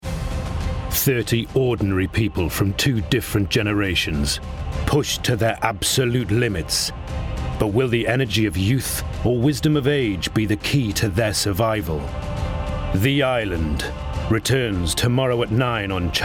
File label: promo